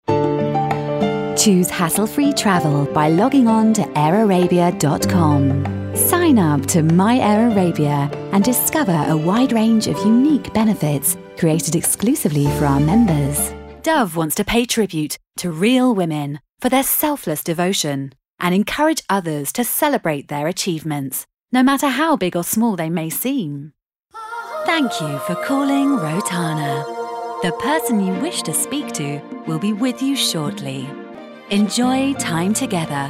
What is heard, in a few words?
corporate, English, Female